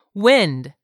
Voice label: "WIND", English; 3000 words

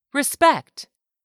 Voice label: "RESPECT", english; 3000 words

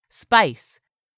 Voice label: "spice", english; 3000 words

